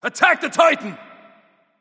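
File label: vox attack, male; smite; susano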